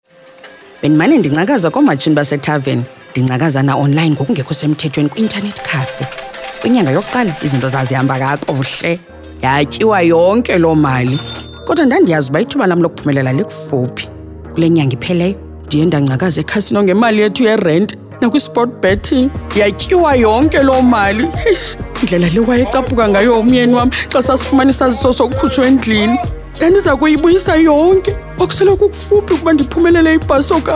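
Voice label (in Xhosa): Xhosa, Awareness Campaigns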